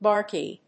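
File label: "Barky", English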